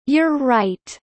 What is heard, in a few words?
low; spring festival